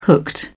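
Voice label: "hooked", British English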